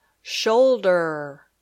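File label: "shoulder", English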